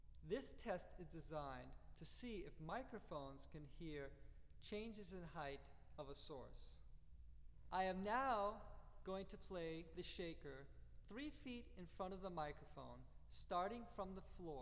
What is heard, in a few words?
Height Test: Announcement